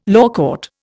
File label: "lawcourt", Indian